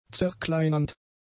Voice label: "zerkleinernd", German; adjectives

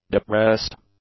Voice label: "depressed", english; adjectives

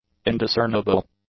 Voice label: "indiscernible", English; adjectives

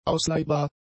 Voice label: "ausleihbar", German; adjectives

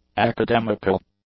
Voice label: "academical", english; adjectives

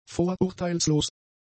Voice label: "vorurteilslos", German; adjectives

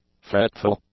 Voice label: "fretful", English; adjectives

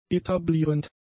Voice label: "etablierend", German; adjectives

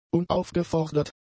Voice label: "unaufgefordert", German; adjectives

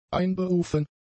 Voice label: "einberufen", german; adjectives

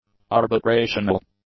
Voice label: "arbitrational", English; adjectives

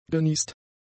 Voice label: "geniest", German; adjectives

